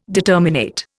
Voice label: "determinate", Indian

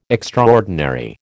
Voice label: "extraordinary", American

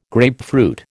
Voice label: "grapefruit", American

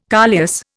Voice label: "coleus", Indian

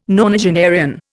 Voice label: "nonagenarian", Indian

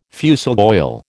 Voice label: "fusel oil", American

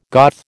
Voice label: "Goth", American

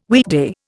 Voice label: "weekday", Indian

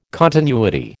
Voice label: "continuity", American

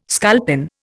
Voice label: "sculpin", Indian

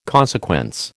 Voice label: "consequence", American

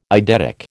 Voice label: "eidetic", American

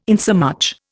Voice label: "insomuch", Indian

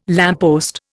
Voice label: "lamppost", Indian

